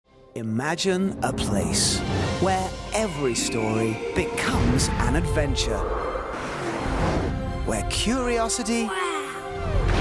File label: PROMO; PROMO REEL